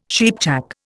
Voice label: "cheapjack", Indian